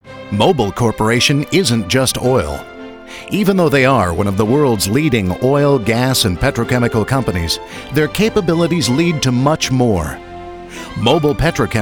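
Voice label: PETROLEUM/CHEMICAL -- Corporate; mobil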